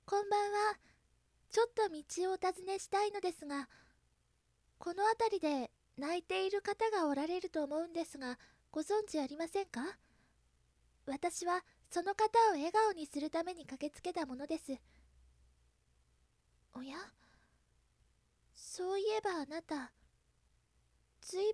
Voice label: calm, voice